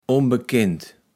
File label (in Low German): "onbekend", kaevels platt